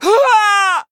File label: Female Dwarf Death